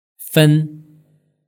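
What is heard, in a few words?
"fen", Mandarin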